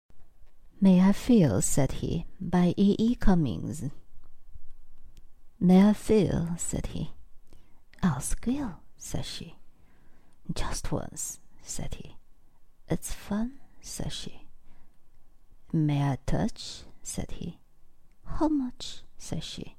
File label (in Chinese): 英语干音; 英语-ASMR-干音-May I Feel Said He